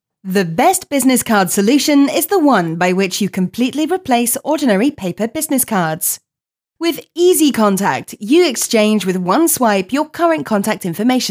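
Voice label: English, female; lektoring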